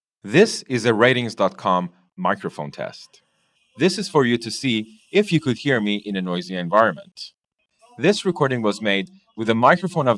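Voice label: dongle boom noise gate subway, gaming headset; Swedish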